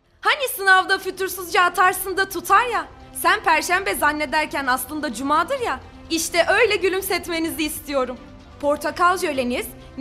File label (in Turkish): reklam